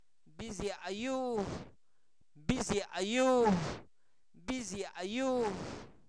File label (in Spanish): cacahuate, Zapoteco, santo domingo albarradas; comestibles; INALI